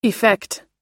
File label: "effect" us; (原因から直接引き起こされる)結果、(結果を引き起こす)効果